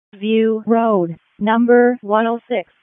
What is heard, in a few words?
EMS ALERT